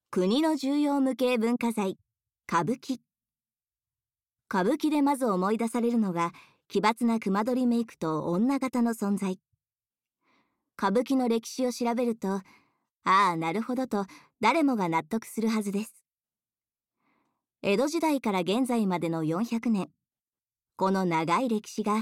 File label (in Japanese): ナレーション